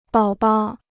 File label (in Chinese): "宝宝", Chinese